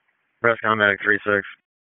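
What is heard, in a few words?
EMS Dispatch; wake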